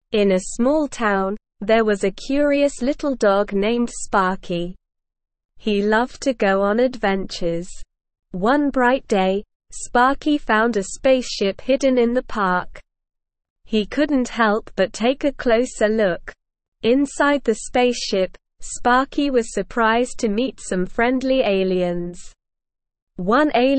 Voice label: ESL Short Stories for Kids Upper Intermediate SLOW Reading; Sparkys Space Adventure